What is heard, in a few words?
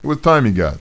male neutral talk; kingpin loc